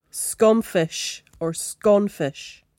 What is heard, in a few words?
"scomfish or sconfish", Cromarty; [sCOM-fesh or sCON-fesh]; disgust, sicken, to; Verb